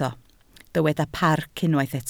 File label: "parc", Welsh